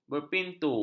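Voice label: "berpintu", Malay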